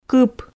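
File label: "кып", Komi, female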